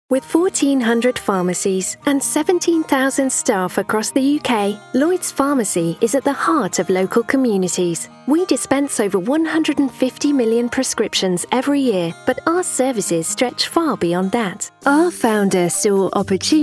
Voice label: Narration Showreel; VO Pro Cast